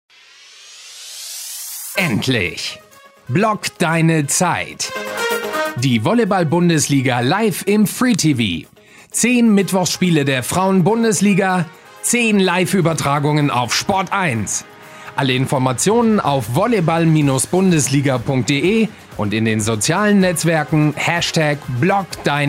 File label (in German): promo spot; vbl; sport1